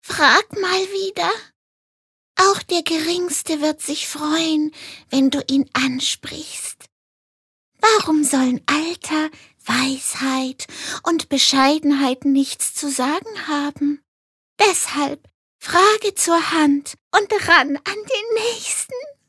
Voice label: Charge Schuechtern

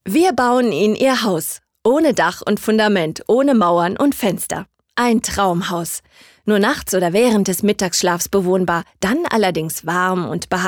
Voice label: Werbung, Freundlich

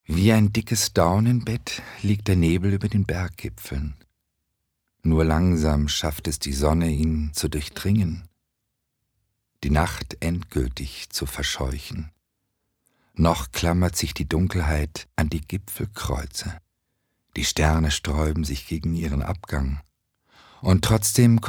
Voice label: Schauspiel Variabel

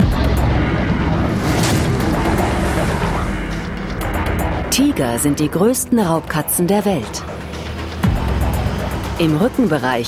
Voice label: Fremdtake Neutral

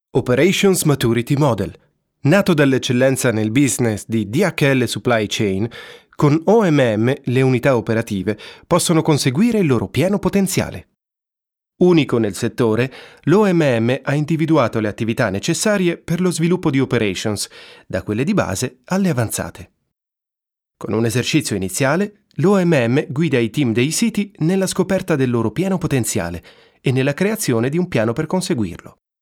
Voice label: Neutral, IT

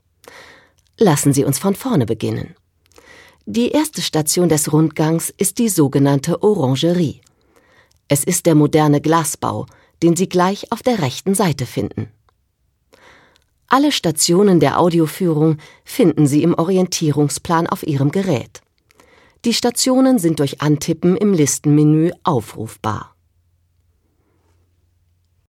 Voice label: Neutral; Fremdtake

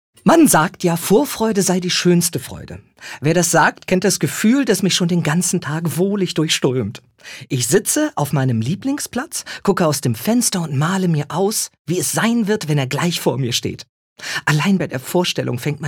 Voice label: Witzig Frech

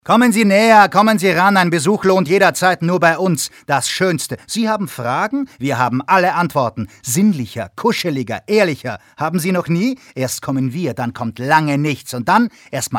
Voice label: Schauspiel Energisch

